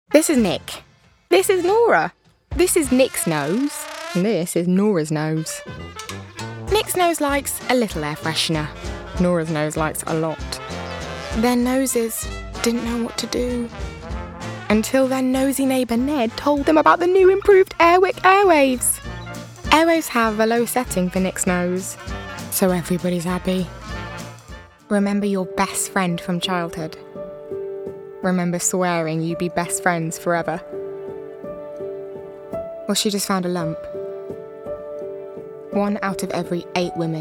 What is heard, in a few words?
Commercial